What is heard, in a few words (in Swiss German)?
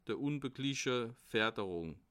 "unbegliche", alsace